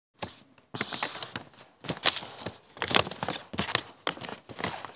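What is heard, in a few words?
"can", hearing impaired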